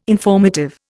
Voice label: "informative", Indian